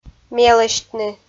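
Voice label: "мелӧсьтны", Komi, female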